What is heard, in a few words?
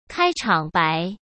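"开场白", Mandarin Chinese; hsk; chinese flashcards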